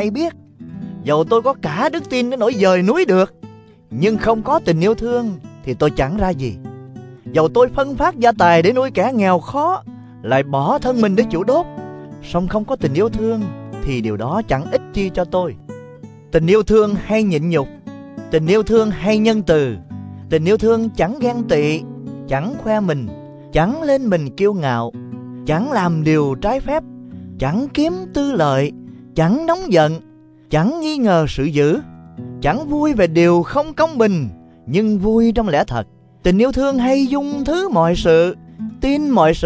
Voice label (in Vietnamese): Kinh Thánh Tiếng Việt; I Cô-rinh-tô 13; Kinh Thánh Tiếng Việt 1925